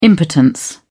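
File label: "impotence", British English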